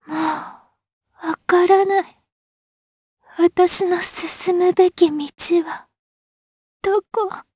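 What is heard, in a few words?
vox dialogue, voices, Japanese; kingsraid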